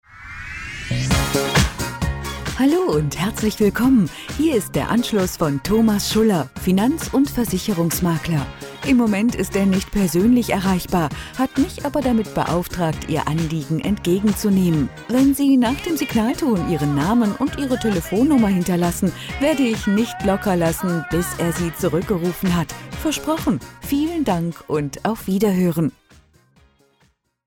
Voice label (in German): Handymailboxansage